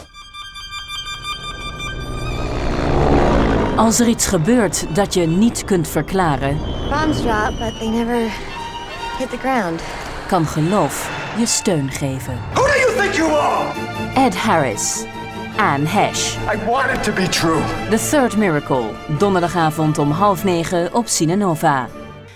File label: female dutch trailer; cinenova